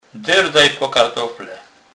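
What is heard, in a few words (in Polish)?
"derdac", Kurpie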